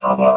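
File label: "papa", robot voice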